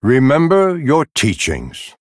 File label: Male Night Elf Bark; Malfurion Stormrage